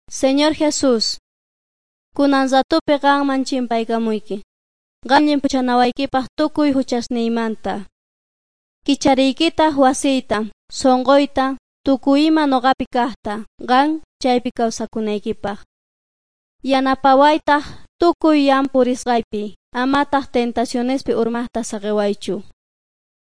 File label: quechua bolivian cochabamba; servants of god